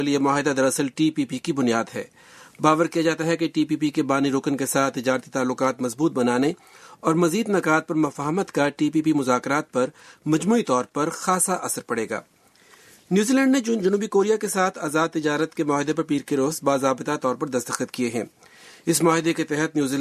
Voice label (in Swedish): Urdu